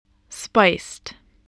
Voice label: "spiced", English voice